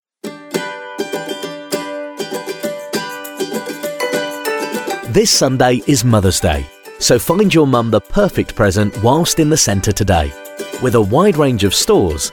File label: mothers day male